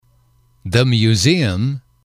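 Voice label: "museum", English, male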